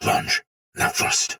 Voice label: Attack Normal Soldier Sword Agent Male, Battle; Dialog; Barks; Melee; Sinecure

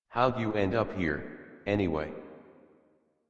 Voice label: Adult, en, Dialog; Dorm Cave; Warframe; Duviri Paradox Quest

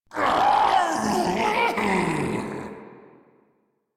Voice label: Spawn Ghoul Saw, en; Grineer Ghoul Sawman

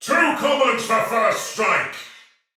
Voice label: See player shout, male soldier; Dialog; Barks; Battle; Sinecure

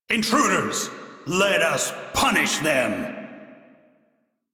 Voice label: Crew Enemy Spot, Male; Warframe; Railjack Crew; Arbiters Hexis

